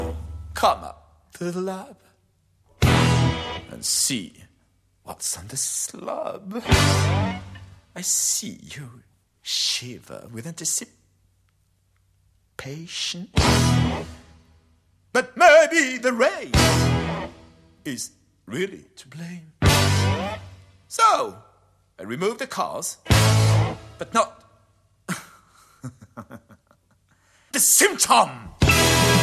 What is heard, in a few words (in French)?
rocky voix jouee